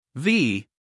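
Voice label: "v", US English, male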